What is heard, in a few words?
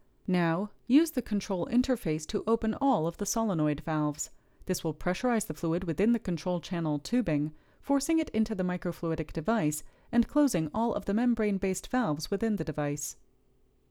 Voiceover